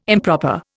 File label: "improper", Indian